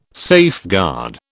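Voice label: "safeguard", British English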